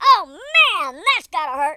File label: ouch, speech, US Sports